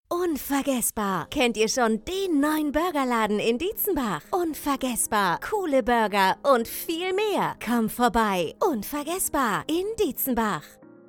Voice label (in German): Spot